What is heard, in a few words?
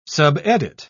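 "subedit", British English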